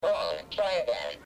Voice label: robot wrong try again